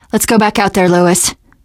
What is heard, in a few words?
inside saferoom, teen girl; source engine; left 4 dead 2; survivor